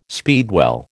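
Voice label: "speedwell", American English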